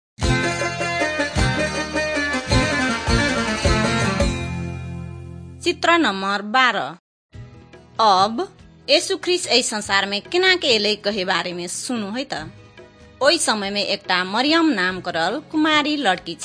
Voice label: maithili thetiya